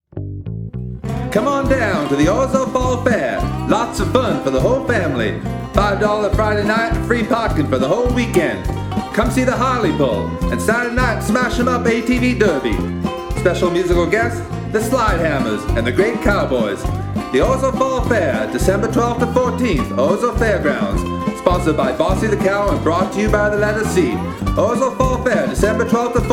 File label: Radio Spots